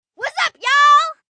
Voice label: Wassup Yall, 1 child, 10 yrs; Greeting; Talking; Voice Box

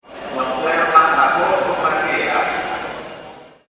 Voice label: Train Station Announce, Pa Announcements; Voice; Train Station Announce1

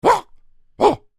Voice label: Creature Troll Speak Surprised Short; Monsters; Horror; Big Room Sound

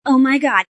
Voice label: Female Oh My God, A female says; Talking

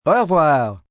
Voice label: "Au revoir", Goodbyes, male, foreign, French; human voice speaking; Greetings and Goodbye; VoiceBox

